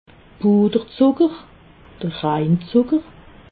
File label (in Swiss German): "puderzucker", alsace